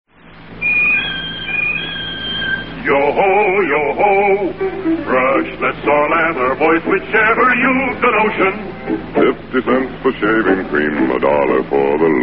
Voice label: vintage commercials; old spice